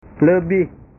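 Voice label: "lebih", Indonesian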